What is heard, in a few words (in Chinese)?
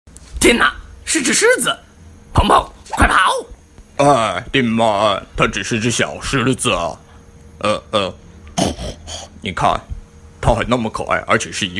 动画配音; 动画配音饰彭彭丁满